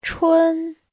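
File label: "chūn", Mandarin Chinese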